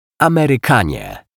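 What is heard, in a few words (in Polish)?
"Amerykanie", Polish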